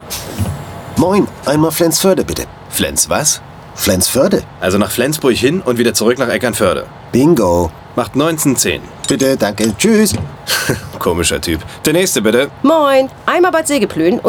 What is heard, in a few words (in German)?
Funkspot; Peperoni; NAH SH; Komischer Typ